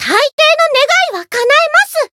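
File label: Voice variable chant, Japanese; myungwha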